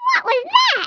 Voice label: "missed", American; Speech; International

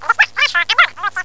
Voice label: "V Gostyakh", Russian

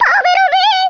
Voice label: "oh dear", Italian

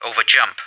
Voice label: over jump, English, CoDriver

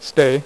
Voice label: "stay", dealer's speech; PowerPOKER Demo

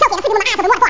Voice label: awsome rap

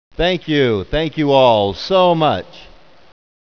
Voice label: "thank you"

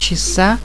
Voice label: "Hour", speak

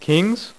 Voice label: "kings", Dealer's Speech; PowerPOKER Demo

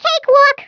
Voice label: "flawless", American; Speech; International

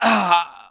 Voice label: killed, English Voice

